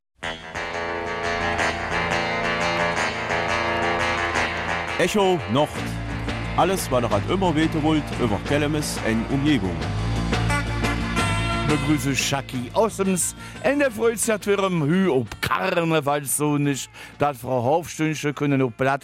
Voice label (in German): Kelmiser Mundart